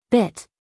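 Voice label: "bit", American English, female; 1000 hours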